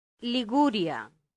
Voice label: "liguria", Latin; santillana